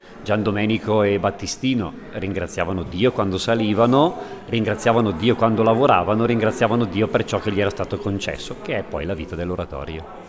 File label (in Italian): intervista breve